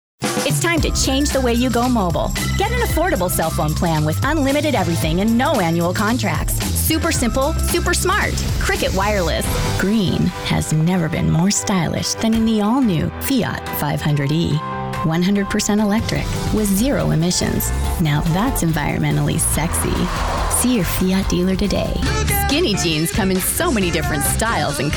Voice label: Commercial Female